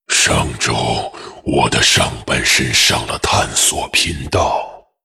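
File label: Crypt fiend pissed, Chinese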